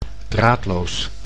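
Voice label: "draadloos", Dutch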